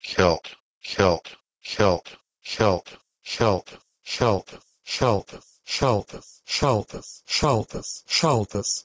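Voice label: Proto-Indo-European kelt to Lithuanian Saltas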